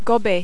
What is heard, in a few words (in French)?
"gober", French